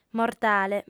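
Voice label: "mortale", female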